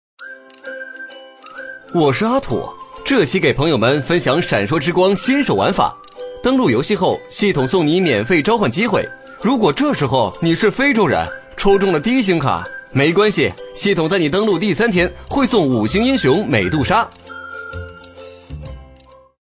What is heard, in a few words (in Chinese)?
男 游戏介绍; 【男6号MG】游戏介绍